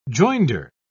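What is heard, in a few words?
"joinder", British English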